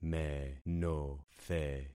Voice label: "menothe", concat syllables; Affixing experiment